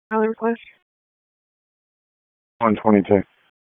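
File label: Police Southwest District Dispatch, p25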